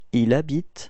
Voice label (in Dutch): habiter il, frans